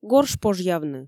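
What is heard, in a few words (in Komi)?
"горш пожъявны", Komi, female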